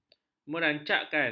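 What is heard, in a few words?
"merancakkan", Malay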